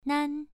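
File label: "nan", tone 1, pronunciation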